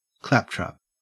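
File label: "claptrap", English, Australian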